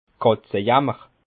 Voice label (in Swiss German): "katzejammer", Bas Rhin; gueule de bois